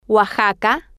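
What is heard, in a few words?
"oaxaca", spanish, female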